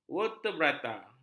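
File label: "vertebrata", Malay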